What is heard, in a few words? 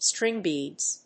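"string beads", English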